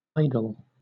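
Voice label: "idol", British English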